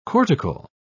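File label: "cortical", British English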